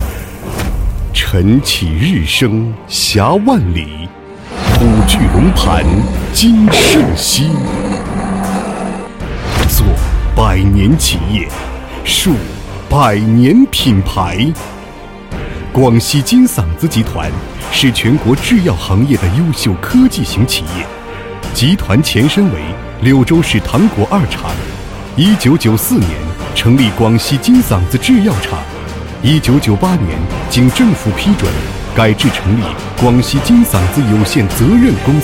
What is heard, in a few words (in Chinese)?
男 企业宣传片; 广西金嗓子集团